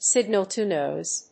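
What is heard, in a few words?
"signal-to-noise", English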